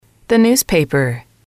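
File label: "the newspaper", English, female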